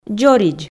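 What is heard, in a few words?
"дзоридз", Komi, female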